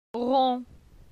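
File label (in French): "Ron", French; phonetique; la syllabe on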